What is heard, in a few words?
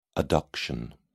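"adduction", British English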